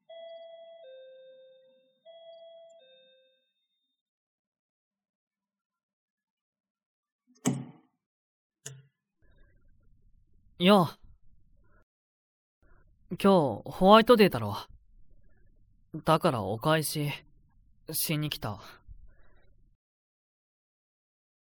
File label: voice only, ASMR